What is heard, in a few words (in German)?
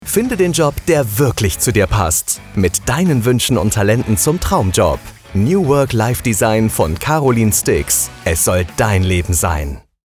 Funkspot; New Work Life Design